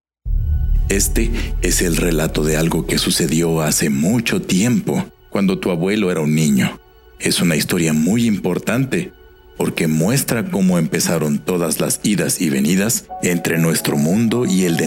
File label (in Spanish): DEMO VOZ